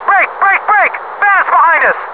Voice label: BREAK, American; combatfs